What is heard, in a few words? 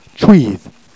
"tchuithe", Jèrriais